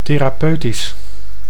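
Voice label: "therapeutisch", Dutch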